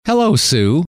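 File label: hello, male; trainer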